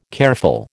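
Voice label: "careful", American English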